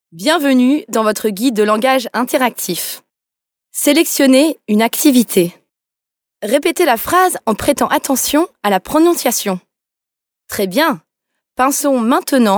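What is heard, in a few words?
French female corporate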